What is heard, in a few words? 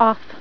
"Off", female voice; TalkingKeys